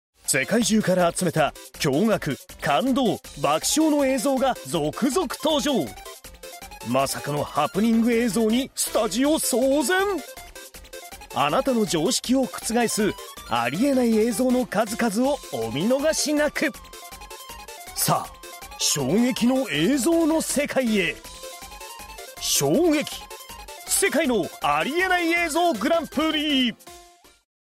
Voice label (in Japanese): バラエティーナレーション